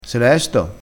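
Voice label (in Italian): "lesto", vocabolario dialettale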